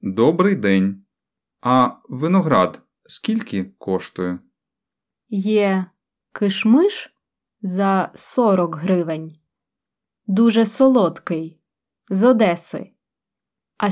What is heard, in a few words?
Dialogue Slow